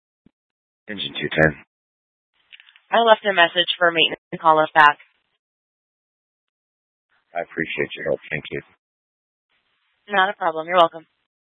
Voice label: Fire Departments Mesa Simulcast; TOPAZ